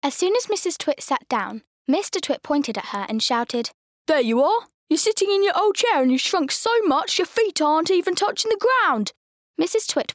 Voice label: Narration; The Twits

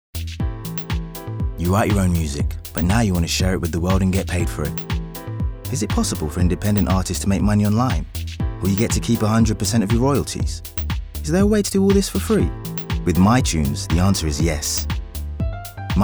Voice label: Narrative

